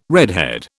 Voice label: "redhead", British